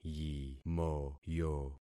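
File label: "yimoyo", concatenated syllables; Affixing experiment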